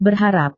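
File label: "berharap", Indonesian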